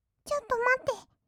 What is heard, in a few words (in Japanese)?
ちょっとまって, kawaii voice; 1200 kawaii voice!!; 色々なワンショット